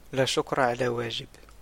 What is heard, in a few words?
you're welcome, arabic